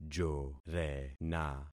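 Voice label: "jorena", concatenated syllables; Affixing experiment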